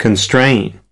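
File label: "constraining", spoken word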